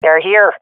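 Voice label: sees enemy, swat female; vo; agents; Source Model Packs; Counter Strike Model Pack; CS2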